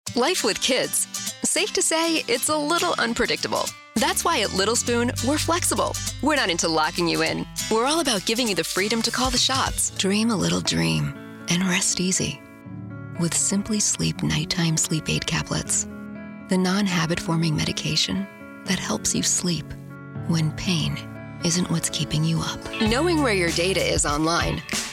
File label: Commercial